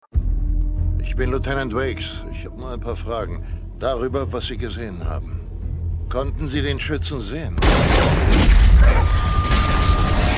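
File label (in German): Hördemo synchron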